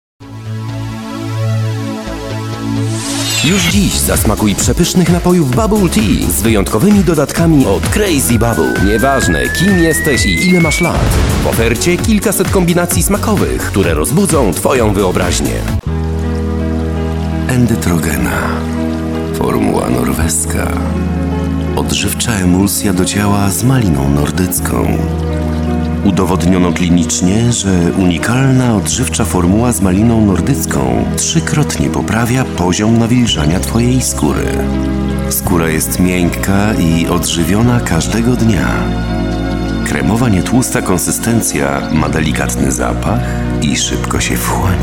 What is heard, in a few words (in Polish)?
reklama radio telewizja